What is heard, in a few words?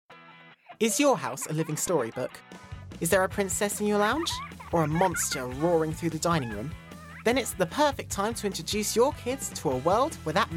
Commercial